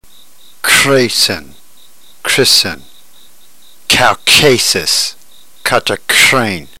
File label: drill, ntgreek